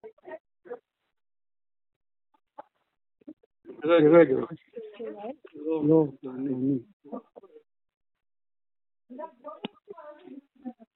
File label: Call recording